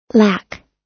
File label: "lack", us